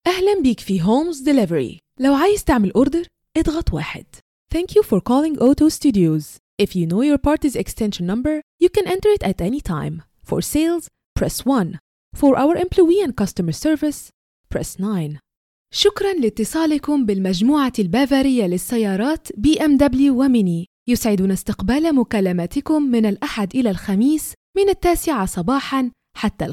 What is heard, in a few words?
IVR